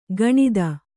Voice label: "ಗಣಿತ", kannada